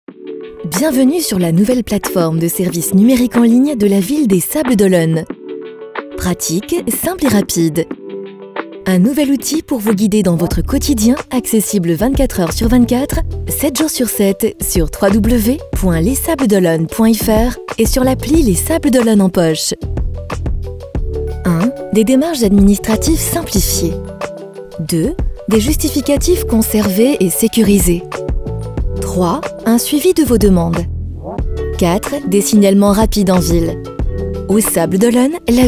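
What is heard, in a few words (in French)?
film explicatif, posée, souriante, chaleureuse; LES SABLES D OLONNE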